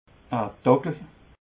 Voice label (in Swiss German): "tackel", alsace